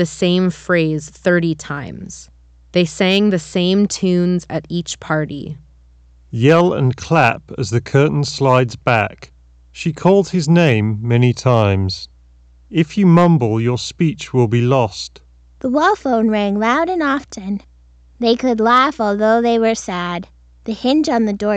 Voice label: Audeze LCD GX Ideal microphone sample